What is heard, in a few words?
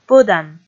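"пӧдан", Komi, female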